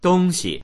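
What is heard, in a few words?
"dong1xi", Mandarin Chinese; 东西; 品物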